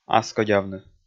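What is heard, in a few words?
"аскодявны", Komi, male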